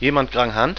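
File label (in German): "JEMAND", German; skat95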